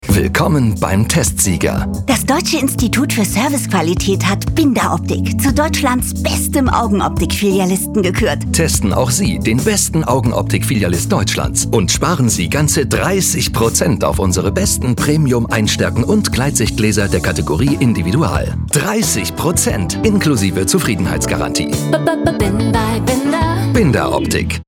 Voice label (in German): Freundlich; Fremdtake